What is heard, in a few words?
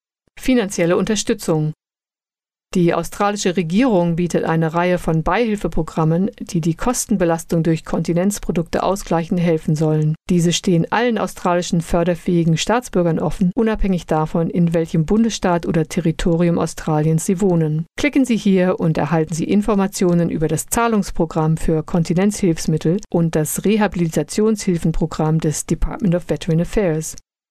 German, Financial Assistance; Continence Foundation